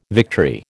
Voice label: "victory", American